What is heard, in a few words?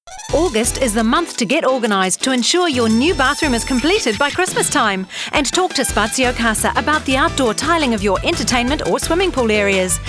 NZ Radio